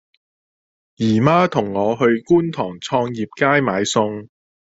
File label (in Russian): Гонконгский